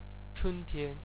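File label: "chuntian", Chinese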